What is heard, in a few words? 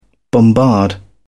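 "bombard", British English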